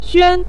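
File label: "xuan" neutral tone, Mandarin Chinese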